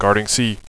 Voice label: "guarding c", radio bot; cstrike

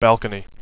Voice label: balcony, radio bot; cstrike

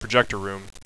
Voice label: projector room, radio bot; cstrike

